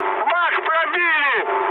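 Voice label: tank damaged, mood high, Russian; War Thunder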